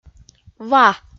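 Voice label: "ва", Komi-Zyrian, female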